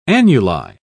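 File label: "annuli", British English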